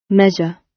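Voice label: "measure", British English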